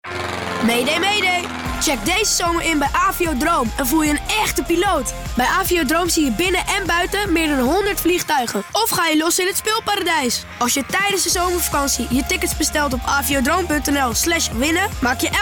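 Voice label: Dutch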